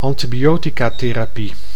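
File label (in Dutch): "antibioticatherapie", Dutch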